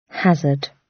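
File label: "hazard", British English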